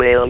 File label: "will", votrax